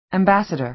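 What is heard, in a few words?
"ambassador", American English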